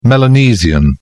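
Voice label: "melanesian", British English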